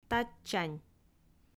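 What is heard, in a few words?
"татчань", Komi, female; audio dict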